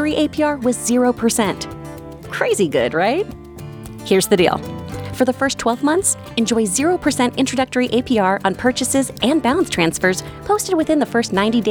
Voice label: Credit Card Radio